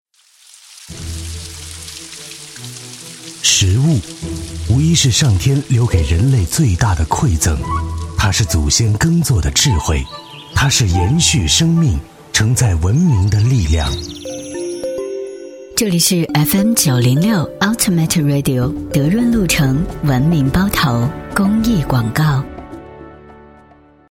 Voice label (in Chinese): 男 片头 公益宣 模仿舌尖, 国语男声配音